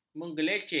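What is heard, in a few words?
"mengelecek", Malay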